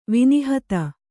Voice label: "ವಿನಿಹತ", Kannada